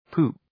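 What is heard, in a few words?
"poop", English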